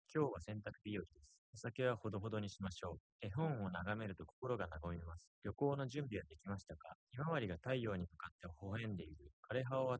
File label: male4 inst sim; SiSEC08; SiSEC underdetermined